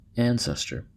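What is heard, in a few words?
"ancestor", US English; pronunciations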